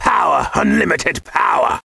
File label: Young Mage